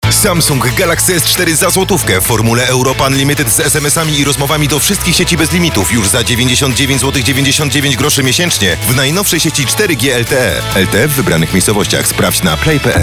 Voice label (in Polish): Radio; Samsung S4